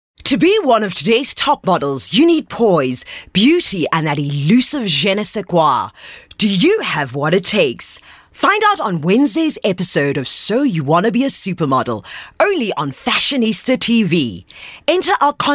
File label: English Promo